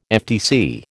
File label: "FTC", American